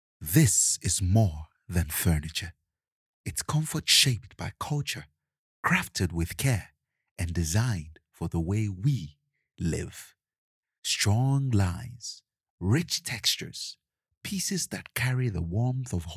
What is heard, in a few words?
male african voice over sales, african english